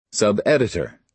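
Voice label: "subeditor", American English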